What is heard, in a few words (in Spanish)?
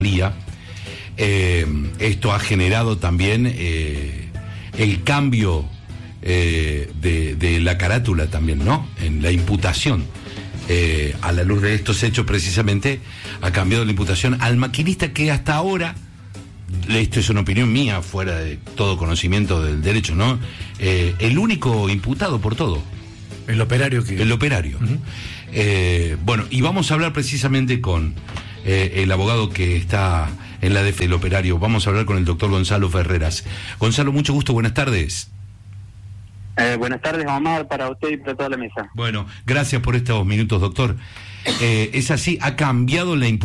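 Radio Explosion Gasoducto; Mitre Cordoba; Siesta Animal; Villa Maria Localidad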